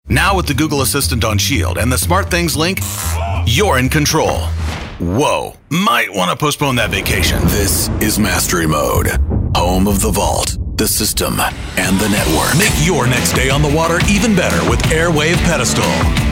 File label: Commercial